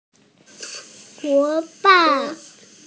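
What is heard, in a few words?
"partner", Mandarin Chinese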